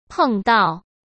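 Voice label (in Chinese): "碰到", Mandarin; chinese-flashcards; hsk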